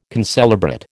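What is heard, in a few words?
"concelebrate", American English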